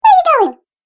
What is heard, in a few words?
Cartoon Game Voice Where You Going